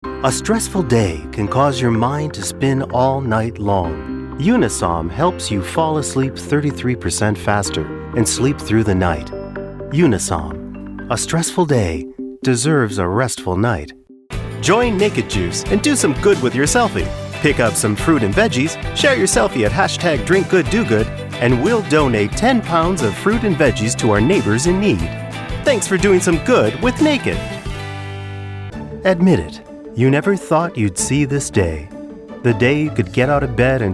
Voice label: Professional Vocal Talent: voice over; VO Demo